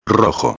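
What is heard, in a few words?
"rojo", Spanish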